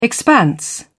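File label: "expanse", British English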